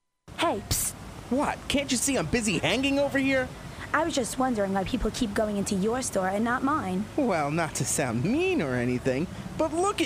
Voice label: Male Female; Freeport Signs